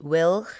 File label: "wilg", english